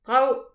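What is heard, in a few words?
"brav", Breton; petit precis de prononciation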